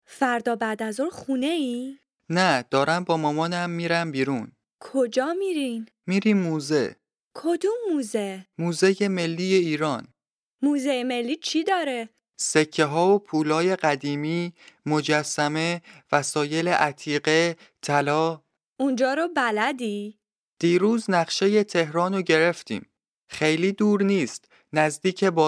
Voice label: Dialogue lesson Farsi